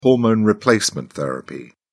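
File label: "hormone replacement therapy", British English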